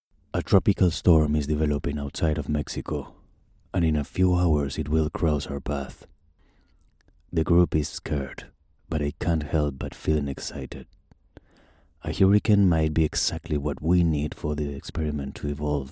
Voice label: English; Male; 20s-30s

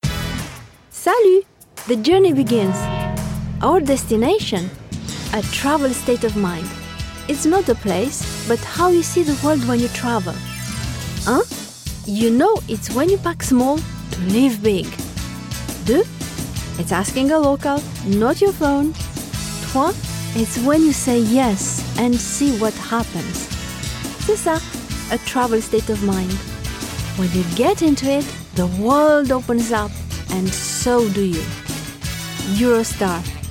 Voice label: English

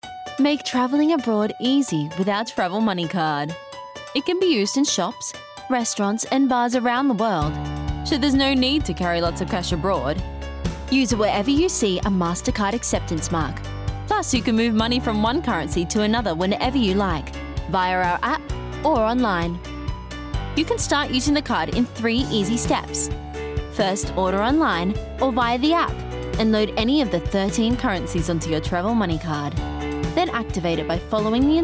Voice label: Dark Narration; 20s-30s. Female. Studio. South African.

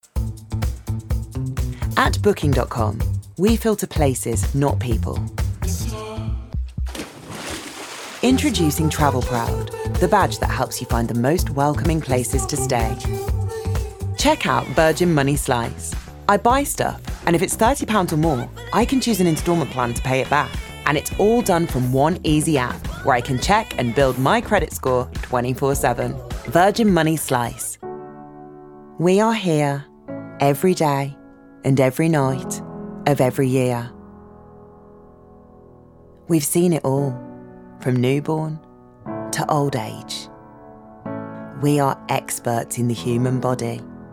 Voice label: Commercial

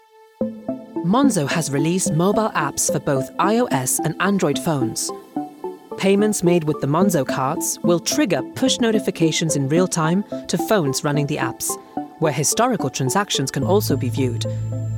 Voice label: Corporate

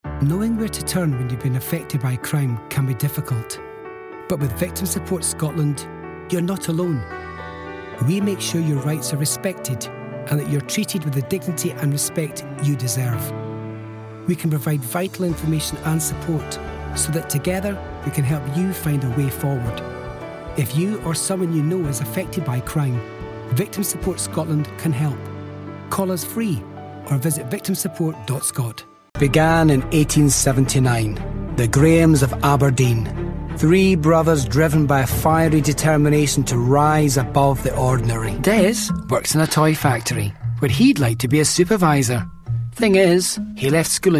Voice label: Scottish

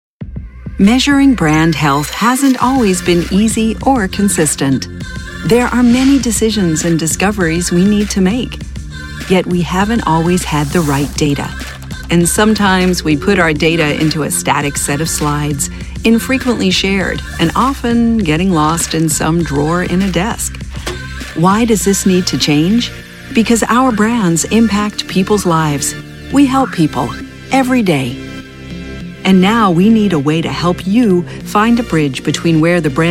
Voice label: Corporate

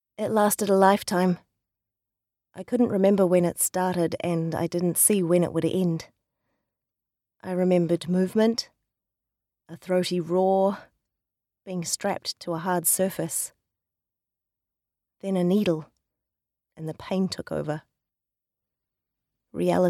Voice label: Audiobook; 20s-30s. Female. New Zealand.

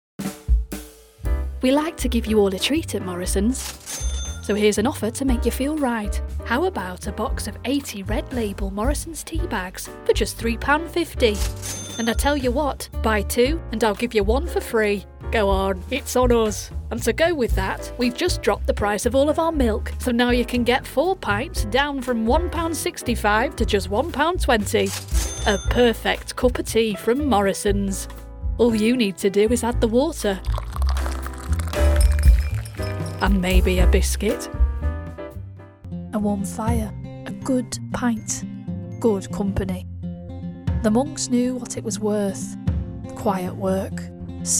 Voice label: Commercials, female

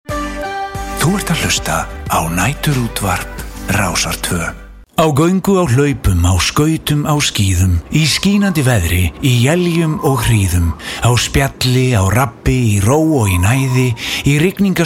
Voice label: Icelandic

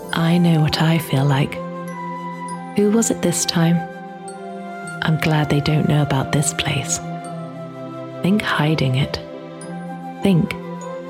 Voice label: Commercial

